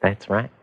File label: "that's right", male